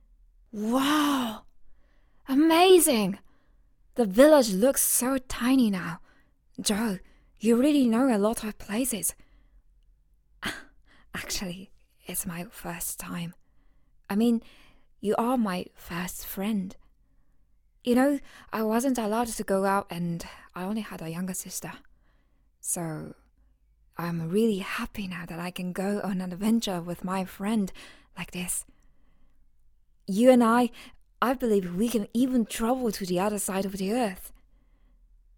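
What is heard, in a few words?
Japanese accented English; GoLocalise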